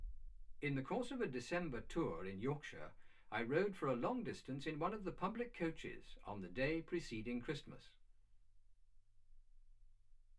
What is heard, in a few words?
male speech english